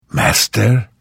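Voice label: Hello, Vo; Morrowind GOTY GOG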